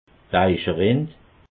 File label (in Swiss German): "eicherind", alsace